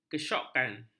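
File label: "kesyokan", Malay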